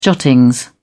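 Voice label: "jottings", British English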